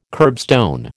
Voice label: "kerbstone", American